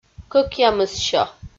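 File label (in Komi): "кӧкъямыссё", Komi, female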